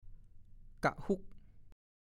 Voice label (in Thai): "กะหุ๊ก", ภาษาโคราช